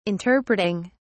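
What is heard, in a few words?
"interpreting", British English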